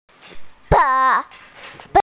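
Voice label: "pang4", Mandarin Chinese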